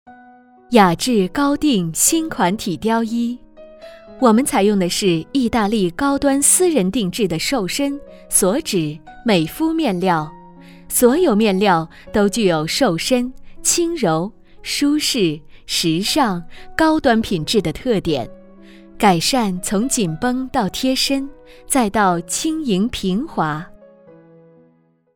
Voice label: 广告优雅高端风格; 雅致高定体雕衣

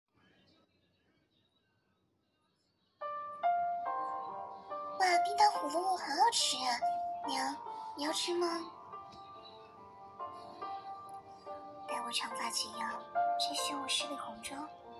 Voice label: 女古风伪音练习

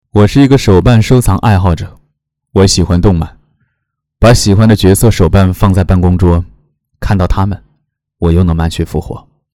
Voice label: 旁白; 潮玩族

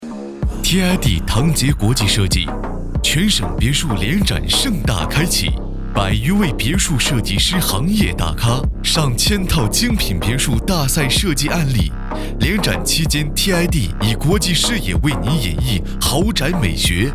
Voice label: 专题宣传质感时尚别墅国际男

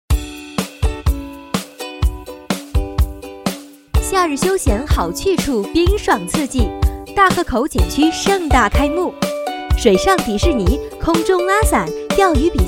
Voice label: 景区宣传

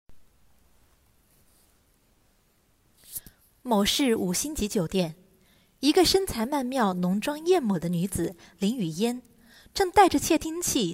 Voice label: 小说有声

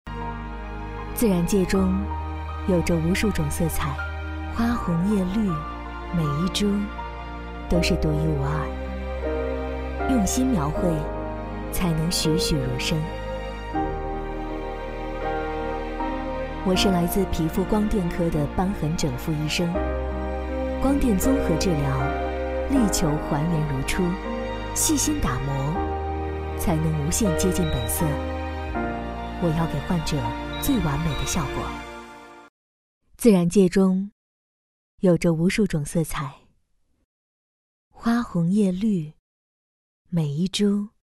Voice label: 广告 自然 整形医院

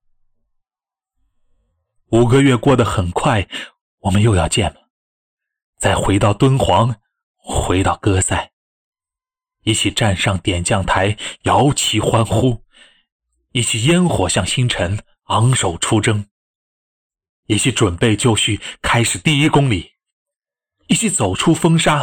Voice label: 宣传短片 干声

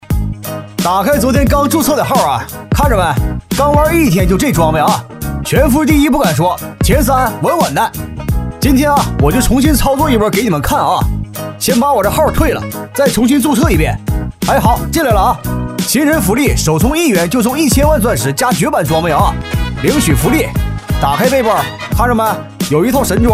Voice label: 信息流游戏东北方言; 新注册的号

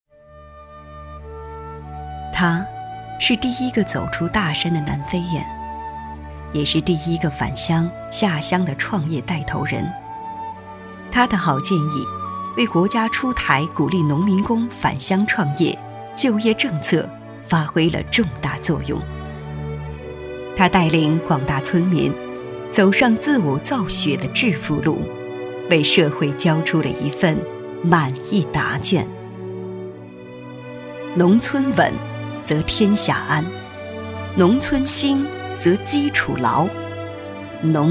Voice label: 人物专题解说; 脱贫

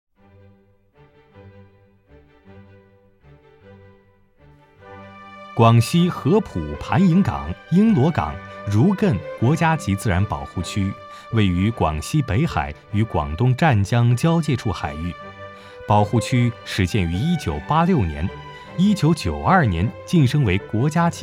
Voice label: 中文纪录片国家级自然保护区

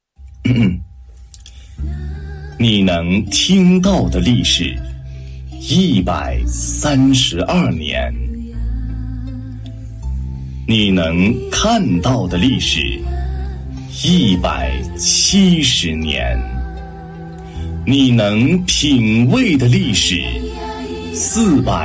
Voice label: 商品广告

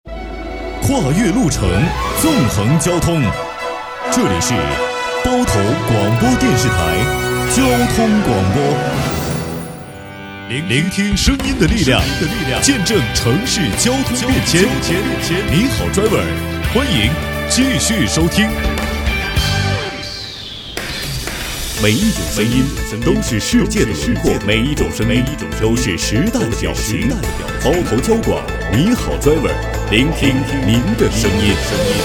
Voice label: 节目包装（电台、自媒体节目）

